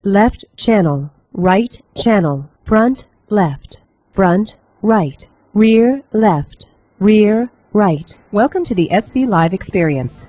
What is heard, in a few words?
female plane noise; Plane Noise 1; After compression and decompression by the VLC HQ 16 codec at 32000 bps